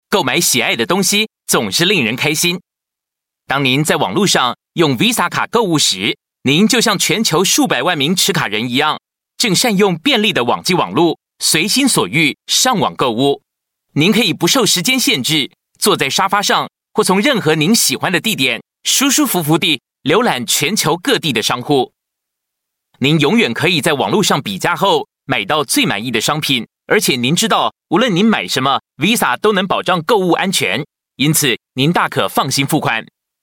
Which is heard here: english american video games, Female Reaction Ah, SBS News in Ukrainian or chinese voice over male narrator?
chinese voice over male narrator